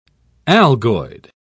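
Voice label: "algoid", British English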